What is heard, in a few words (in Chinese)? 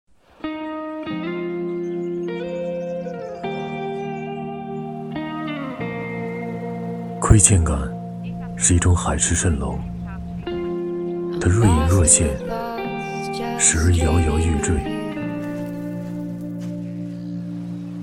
男 央视品质广告; 千岛寒流